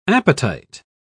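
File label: "apatite", British English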